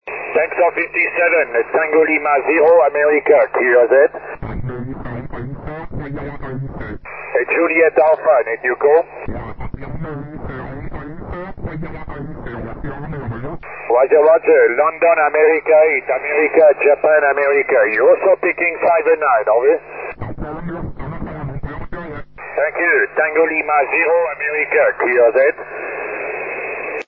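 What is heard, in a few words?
12 single sideband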